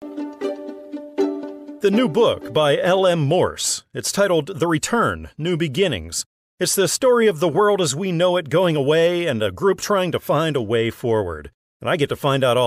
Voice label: interview